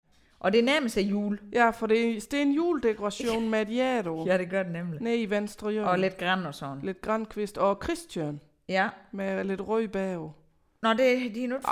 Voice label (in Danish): SOEN dialekt aar